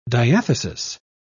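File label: "diathesis", British English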